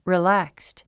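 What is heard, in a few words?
"relaxed", English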